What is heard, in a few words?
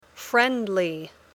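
"friendly", English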